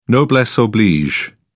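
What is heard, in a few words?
"noblesse oblige", British English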